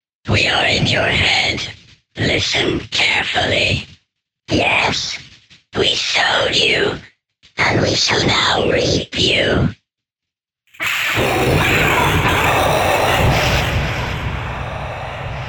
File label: english irish